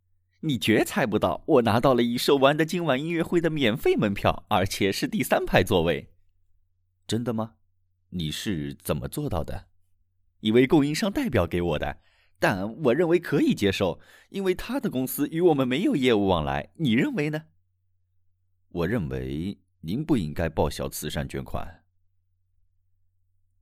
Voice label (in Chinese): chinese mandarin video games